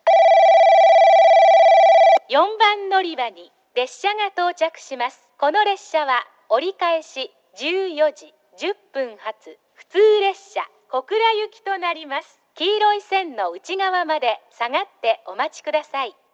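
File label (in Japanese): 4番のりば接近放送, 女声; 行橋; 折り返し・小倉行き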